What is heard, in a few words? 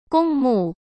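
"公墓", Mandarin Chinese; chinese-flashcards; hsk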